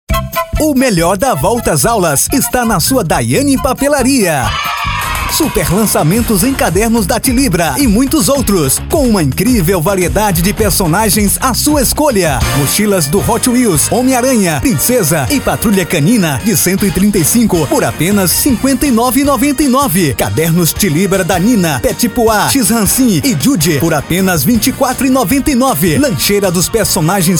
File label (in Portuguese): spot; dayane papelaria